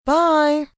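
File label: "Bye" female; Goodbyes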